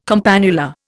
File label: "campanula", Indian